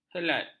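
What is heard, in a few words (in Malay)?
"helat", Malay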